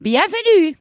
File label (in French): "bienvenue", dwarf, female; PhoenixCraft-1.16.5; PhoenixNPCPack; minecraft